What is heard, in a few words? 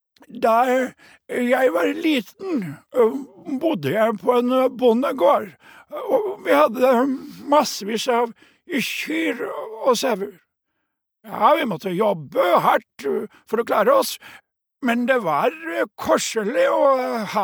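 no dub old man, Norwegian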